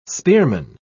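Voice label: "spearman", British English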